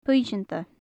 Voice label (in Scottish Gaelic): "puinnseanta", Gaelic; Faclan Nàdair